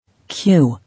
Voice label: "Queue", English

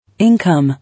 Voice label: "Income", English

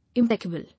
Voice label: "Impeccable", English

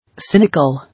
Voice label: "Cynical", English

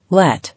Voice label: "Let", English

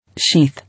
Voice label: "Sheath", English

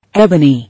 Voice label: "Ebony", English

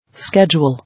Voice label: "Schedule", English

